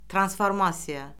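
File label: "transformasiya", Azerbaijani; Orfoqrafiya Lüğəti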